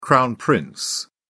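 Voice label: "crown prince", British English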